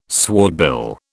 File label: "swordbill", British